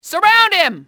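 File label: surround him, english female; XBOX; enemy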